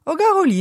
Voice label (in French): "Carulina", Moita Verde (nord)